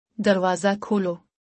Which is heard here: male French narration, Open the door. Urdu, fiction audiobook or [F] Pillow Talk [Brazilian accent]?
Open the door. Urdu